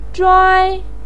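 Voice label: "zhuai1", Mandarin Chinese pinyin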